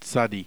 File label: tzadi, heb